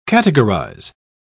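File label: "categorise", British English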